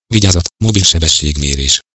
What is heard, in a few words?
Mobile Camera, voice male; GPS; HU; Igo 8